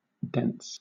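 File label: "dents", English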